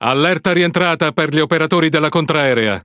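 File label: Italian speech Consumable AAC normal; World Of Warships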